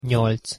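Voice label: "nyolc", Hungarian pronunciation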